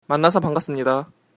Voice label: meet, korean

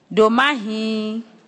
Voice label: "maybe", Ewe

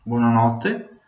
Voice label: good night, Venetian

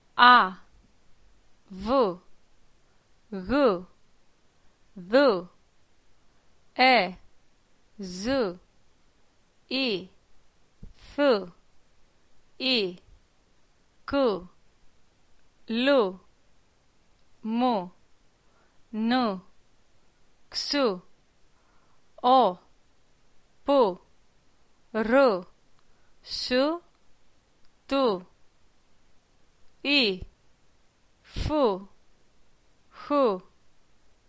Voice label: pronunciation, Greek

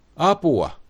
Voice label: help, finnish

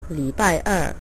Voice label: "tuesday", Mandarin

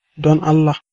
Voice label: please, hausa

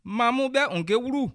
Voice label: slowly, bassa

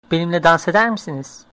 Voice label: dance, turkish

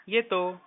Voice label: goodbye, marathi

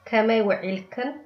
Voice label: good afternoon, tigrinya

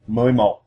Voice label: eleven vietnamese

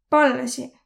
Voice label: you're welcome, Võro

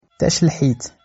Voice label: shilha

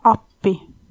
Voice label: help, estonian

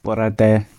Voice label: good morning, gwentian

